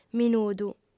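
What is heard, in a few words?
"minudu", female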